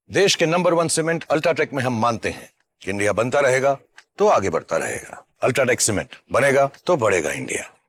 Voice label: shahrukh voice, Hindi; XTTS Hindi finetuned

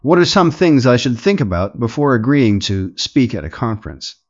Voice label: en male; KE-Omni

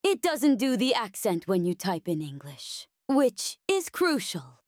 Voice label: accent

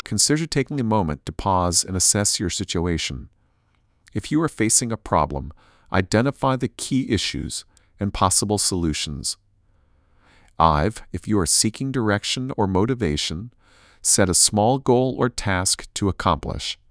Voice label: emotion; S2S-Bench; cascade

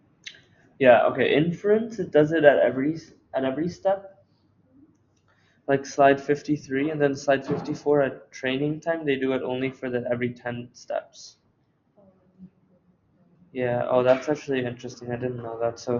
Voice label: test en; reazonspeech-k2-v2-ja-en

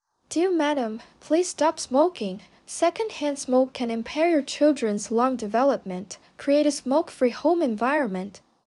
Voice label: 30-39 female; Storytelling

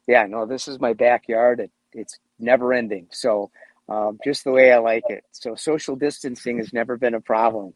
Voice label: en prompt; mooncast

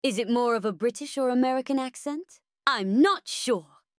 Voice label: british

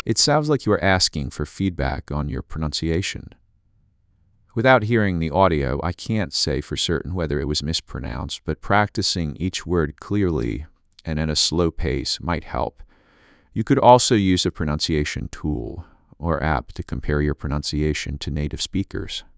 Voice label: Airport Announcements; S2S-Bench; cascade

